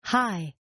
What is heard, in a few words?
Croupier High, Casino; Casinos; Partners In Rhyme